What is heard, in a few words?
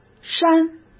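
"山", Mandarin Chinese; hsk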